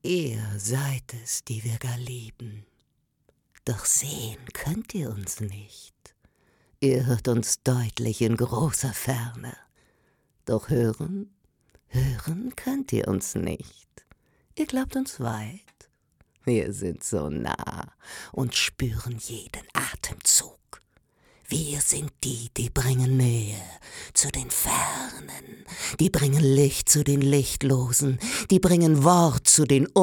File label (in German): psycho, frauen; sprecher